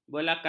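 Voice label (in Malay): "belakang", Malay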